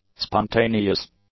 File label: "spontaneous", english; adjectives